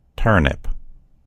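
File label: "turnip", American English; gcompris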